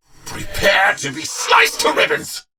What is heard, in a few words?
Attack, en; Warframe; Battle; Dax; DaxKnight